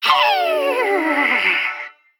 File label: Female Mech Death